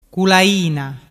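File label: "culaina", Vernazzese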